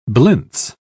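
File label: "blintze", British English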